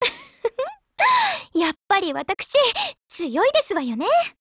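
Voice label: FEED, Japanese; STG44Mod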